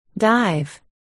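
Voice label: "dive", spoken word, articulation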